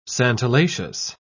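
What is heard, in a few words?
"santalaceous", British English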